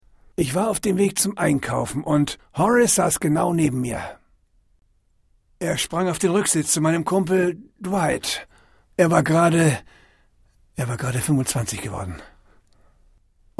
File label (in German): hoerprobe synchron stimme; miracle dogs; stimme von stacy keach